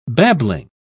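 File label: "babbling", British English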